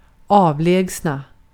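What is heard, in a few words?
Swedish vocabulary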